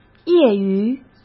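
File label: "业余", Mandarin Chinese; hsk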